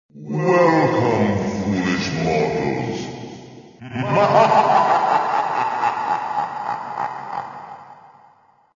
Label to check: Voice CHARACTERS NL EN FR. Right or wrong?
Wrong